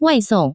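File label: "waisong", Taiwan Mandarin; delivery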